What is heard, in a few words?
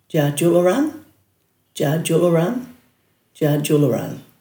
"djaadjularan", Dhurga